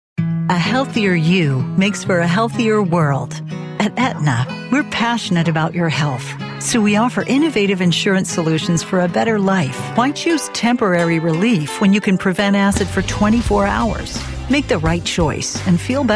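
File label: Commercial, real people female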